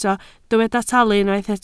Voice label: "talu", Welsh

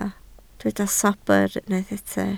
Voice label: "sobr", Welsh

